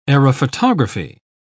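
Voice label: "aerophotography", English, uk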